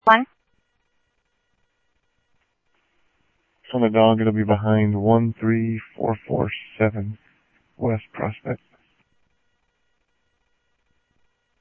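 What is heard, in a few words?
Sheriff West County, scanner audio; MCSO - WEST; ThompsonPeakScot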